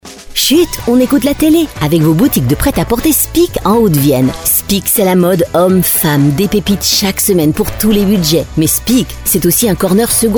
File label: Sponsor Promo; Chut on écoute la télé avec vos boutiques speak en Nouvelle Aquitaine et Centre; haute vienne